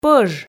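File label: "пӧж" Komi, female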